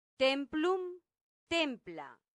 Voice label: "templum templa", latin; santillana

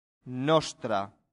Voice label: "nostra", Latin; santillana

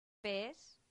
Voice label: "pes", latin; santillana

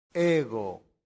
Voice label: "ego", Latin; santillana

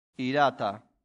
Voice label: "irata", latin; santillana

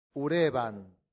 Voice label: "urebant", latin; santillana

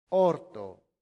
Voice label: "horto", Latin; santillana

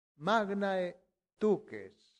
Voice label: "magnae Duces", Latin; santillana

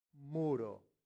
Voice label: "muro", latin; santillana

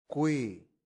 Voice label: "qui", latin; santillana

